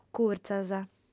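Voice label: "curtzas", female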